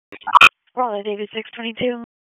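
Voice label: Police District Dispatch, p25; wake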